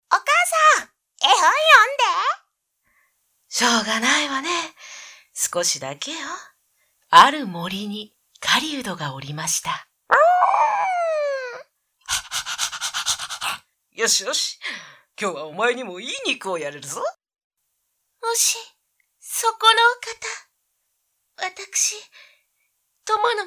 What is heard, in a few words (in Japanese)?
variation 母・子・犬・男性・女性・老婆